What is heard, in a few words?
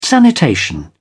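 "sanitation", British English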